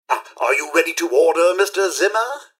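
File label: hello, robot; rivetcity; mrhandy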